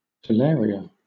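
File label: "talaria", British English; pronunciation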